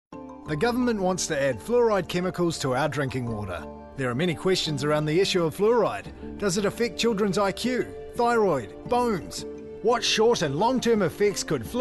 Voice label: Ad for talk